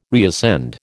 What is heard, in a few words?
"reascend", American